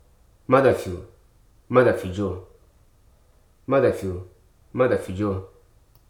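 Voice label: "impossible", spoken word; hruso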